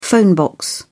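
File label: "phone box", British English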